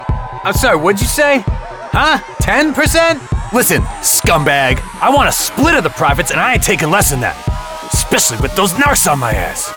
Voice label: Video Game Tough Guy

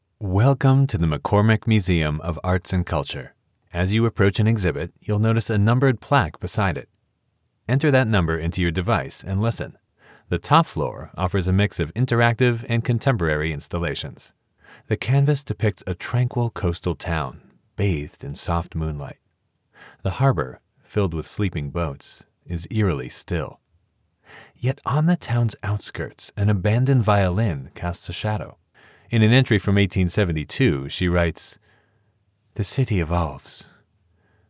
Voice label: Audioguide

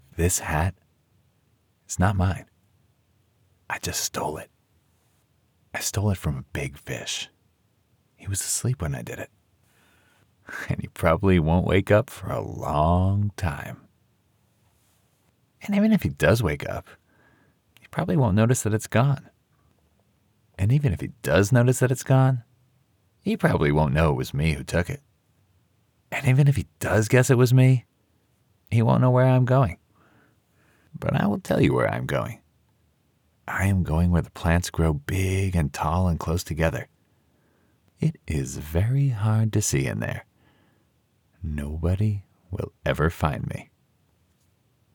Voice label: Audiobook Childrens 1st Person Fiction

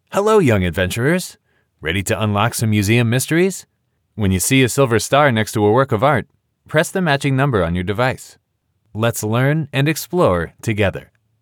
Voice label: Museum Audio Guide Children

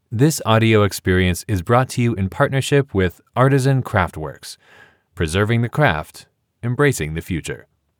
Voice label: Museum Audio Guide Sponsorship Notice

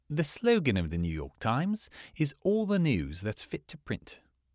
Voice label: British RP

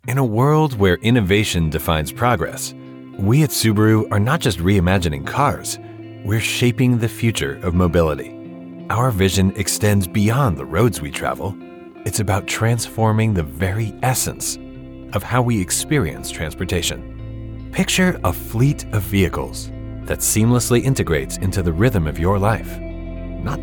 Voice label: Corporate Brand Anthem

